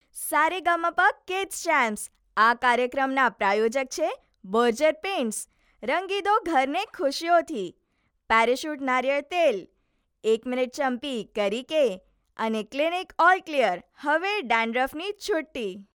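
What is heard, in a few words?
Gujarati female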